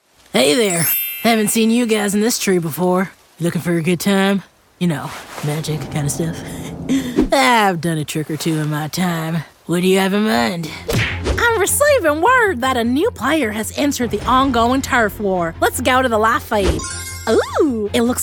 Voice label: Voiceover, Animation; new york